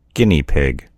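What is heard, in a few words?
"guinea pig", American English; gcompris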